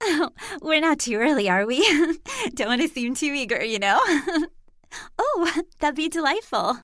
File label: airhead